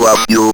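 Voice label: ROBVOX, robot voice; E-Lab; X Static Goldmine 1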